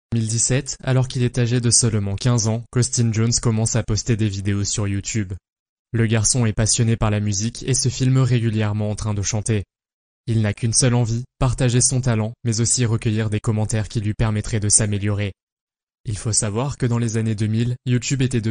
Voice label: 法语男, 外语, 小语种

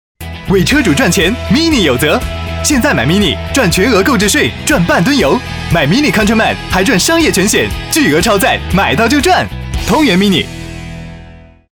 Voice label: 广告 欢快; 汽车; 年轻系汽车MINI

